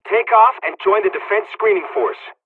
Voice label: takeoff, dialogs hungarian

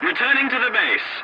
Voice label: voice message return to base, english; War Thunder

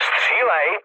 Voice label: attack position, speech cze, mood med; War Thunder

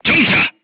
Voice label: voice message short stop, korean, crew tanks

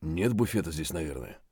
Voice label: mutter, voice; Escape from Tarkov

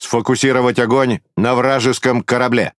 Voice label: Ru Speech Priority Target; World Of Warships

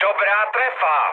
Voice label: bomb success, speech cze, mood med; War Thunder

